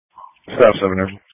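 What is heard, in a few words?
Police Patrol Dispatch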